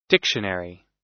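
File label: "dictionary", American English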